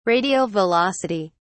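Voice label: "radial velocity", British English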